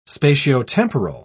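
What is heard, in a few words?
"spatiotemporal", British English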